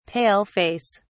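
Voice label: "pale face", British English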